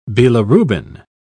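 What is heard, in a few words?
"bilirubin", English, uk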